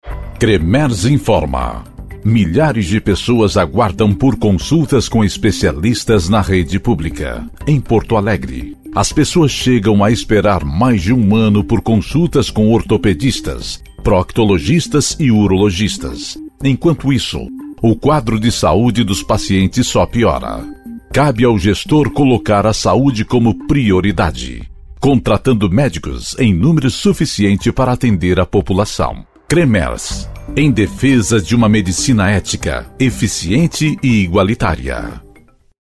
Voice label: male brazilian comercial; ideasonora